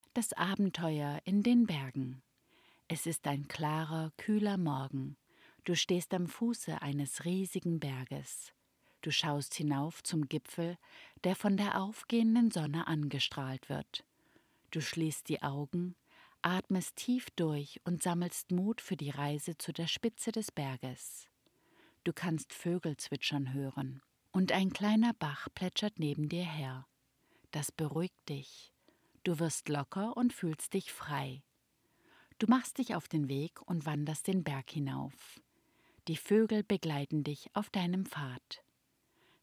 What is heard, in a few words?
meditation